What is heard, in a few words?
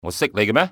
do i know, male; XBOX; civ